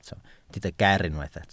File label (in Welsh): "ger" Welsh